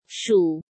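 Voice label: "Shǔ", Chinese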